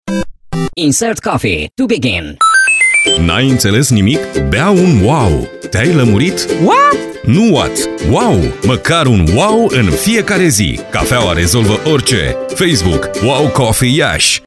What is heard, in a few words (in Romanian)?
spot; Rock